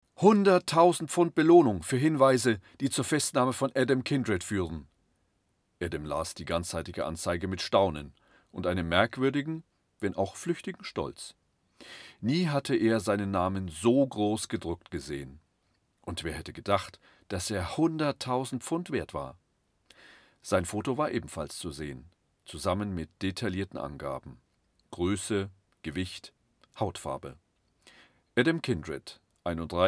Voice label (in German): Hoerprobe Hoerbuch Roman; William Boyd; Einfach Gewitter